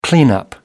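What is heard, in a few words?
"cleanup", English, uk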